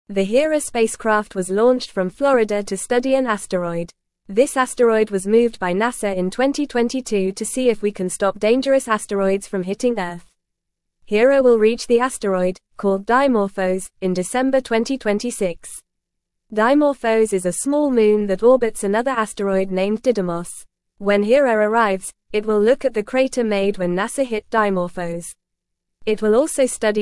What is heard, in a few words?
English news reading, lower intermediate, fast; English Newsroom; Spaceship Hera to Visit Space Rock Dimorphos